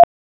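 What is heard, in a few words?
Raleigh Police North District Tactical, p25; wake p25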